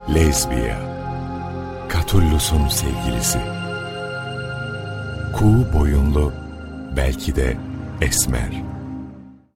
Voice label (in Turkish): belgesel tarihi